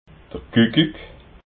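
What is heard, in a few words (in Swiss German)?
"kuckuck", Alsatian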